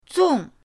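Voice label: "zong4", Mandarin Chinese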